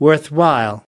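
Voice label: "worthwhile", US English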